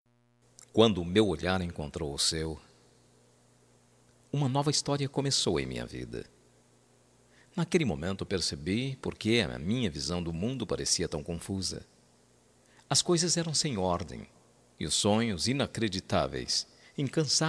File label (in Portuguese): ROMANTICA PARA ESPOSO ROMANTICA MASC, telemensagem romantica para esposo